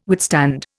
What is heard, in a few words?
"withstand", Indian